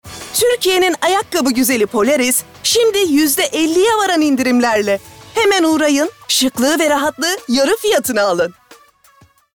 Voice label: Neşeli

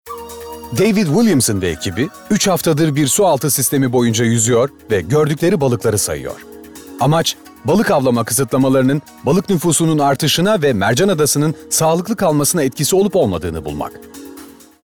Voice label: Belgesel

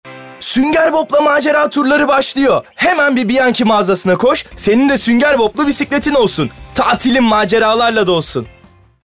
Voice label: Reklam

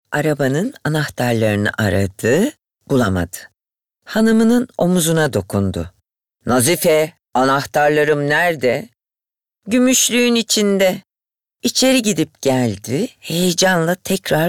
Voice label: Sesli Kitap

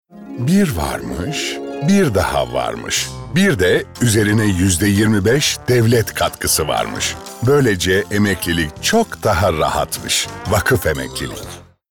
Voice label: Komik

